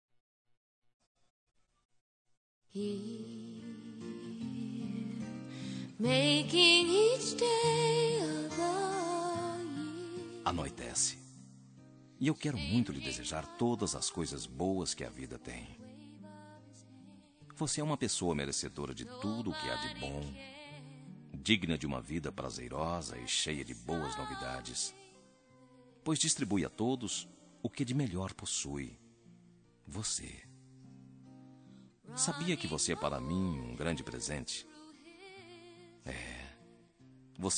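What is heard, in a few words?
male; neutra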